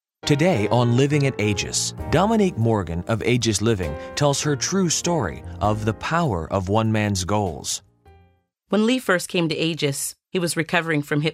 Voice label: Radio; Aegis Living